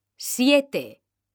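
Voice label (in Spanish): "siete", Spanish; mekanta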